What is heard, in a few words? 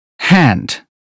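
"hand", British English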